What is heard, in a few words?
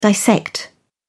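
"dissect", British English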